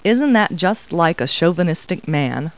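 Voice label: woman; Talking Clip Words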